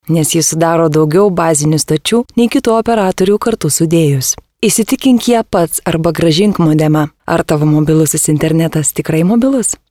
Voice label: lithuanian female